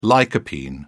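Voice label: "lycopene", British English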